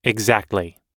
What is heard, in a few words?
everyday agreeing us